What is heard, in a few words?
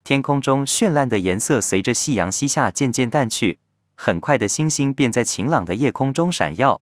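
Chinese, Taiwan; iOS 26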